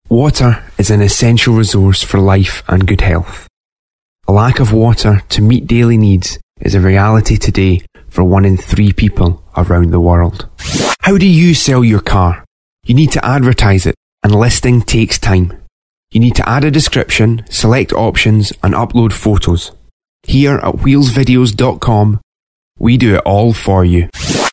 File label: Narration